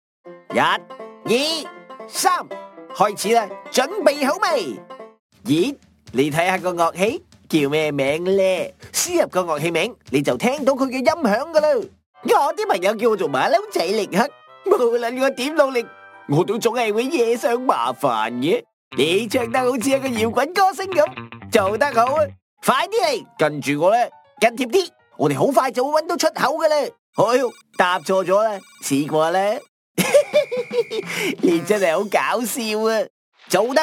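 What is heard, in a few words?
Cantonese Toys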